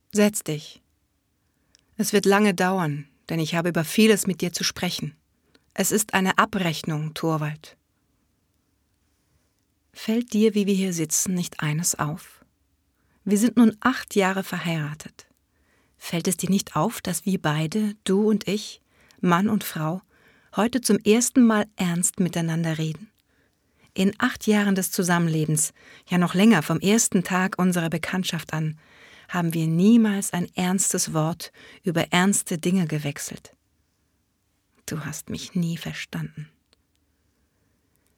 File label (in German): Hoerspiel